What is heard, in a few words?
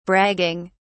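"bragging", English, British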